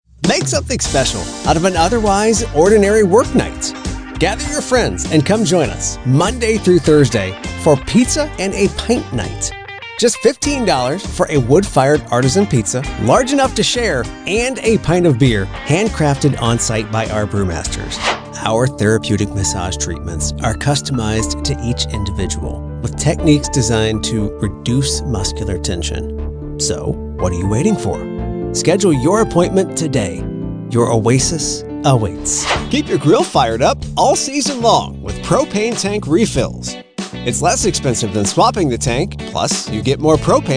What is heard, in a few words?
male moh, male voice